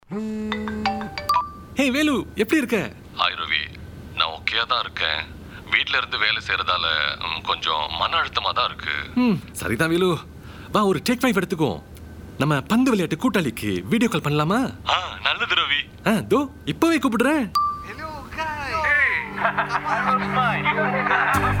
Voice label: RADIO LETS TALK MINDA SIHAT TAMIL